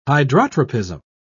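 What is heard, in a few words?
"hydrotropism", British English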